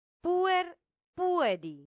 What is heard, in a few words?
"puer pueri", latin; santillana